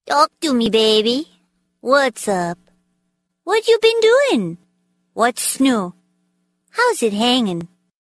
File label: Talk To Me Baby Cute